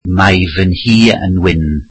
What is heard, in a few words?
welsh my house is white